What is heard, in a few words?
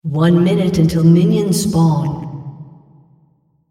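female On One Minute Minions Spawn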